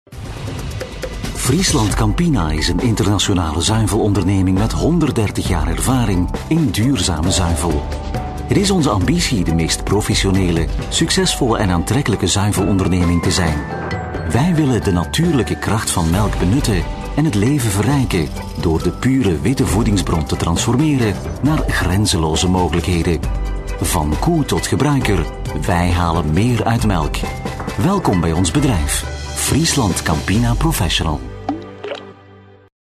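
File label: Flemish, male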